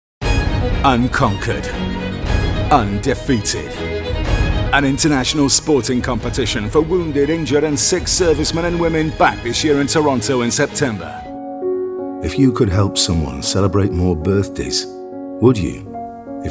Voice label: Commercials